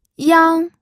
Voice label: "yang1", pinyin